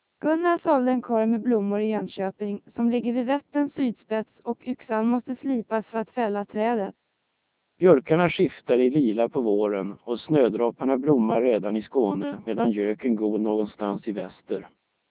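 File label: Swedish, speech, CODEC2 3200 bps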